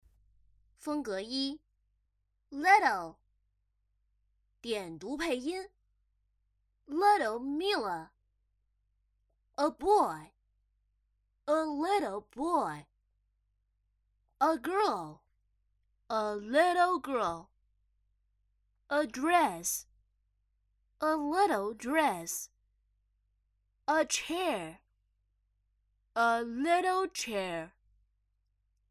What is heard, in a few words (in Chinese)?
两种风格英文小男孩; 英文童声模仿